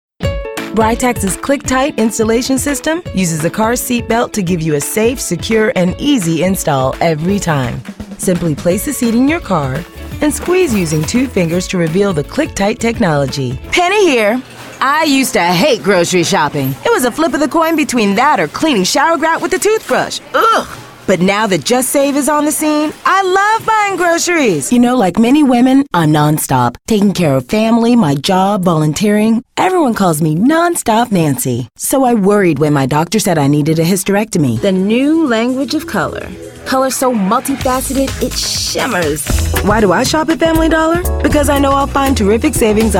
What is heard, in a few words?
commercial